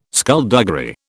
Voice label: "skulduggery", British